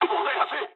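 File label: aircraft damaged mood low, speech japanese; War Thunder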